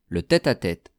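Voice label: "le tete a tete", French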